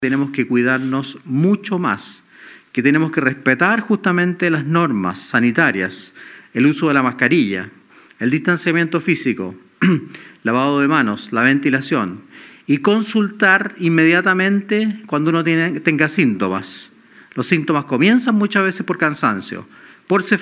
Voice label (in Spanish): cuña; PARIS